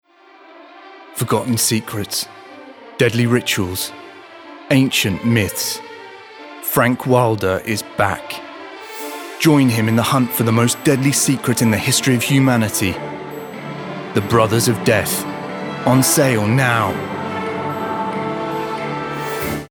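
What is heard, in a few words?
British movie trailer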